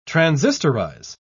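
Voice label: "transistorize", British English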